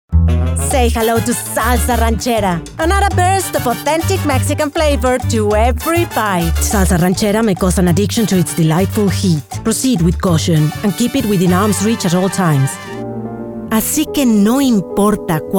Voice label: BILINGUAL comercial; Mexican female VoiceOver artist and actor